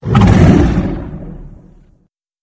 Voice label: chatter; slender; guardian